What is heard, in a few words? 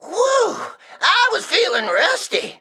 Male Mech Emote Trigger; Tinkers Sharpsword Oil